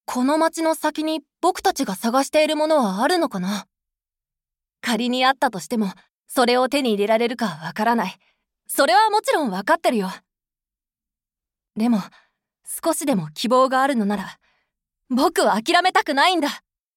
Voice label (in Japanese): 少年